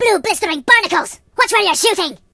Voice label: Watch it, Pirate voices; hedgewars